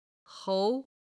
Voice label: "hou2", Chinese